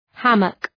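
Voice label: "hammock", English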